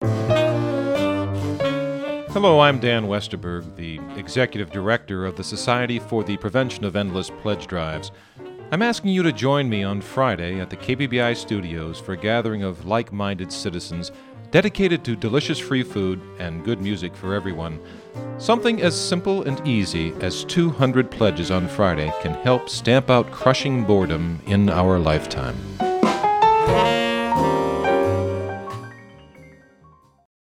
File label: promo; KBBI